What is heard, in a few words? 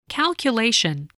"calculation", spelling